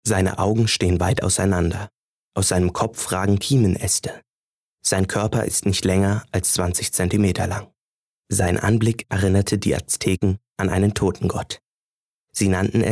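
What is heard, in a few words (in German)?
Dokumentarischer Text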